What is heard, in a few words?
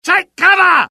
alert, UK; mohaa; dialogue